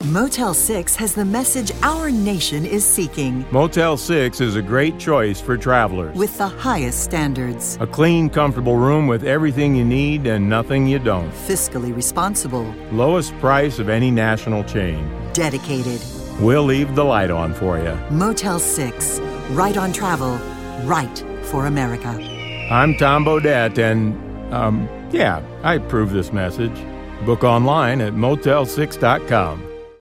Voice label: political ad; motel 6